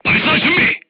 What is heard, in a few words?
voice message load, korean; crew tanks